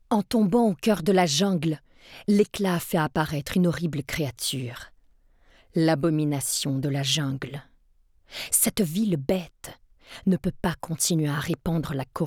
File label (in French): Narration pour jeu video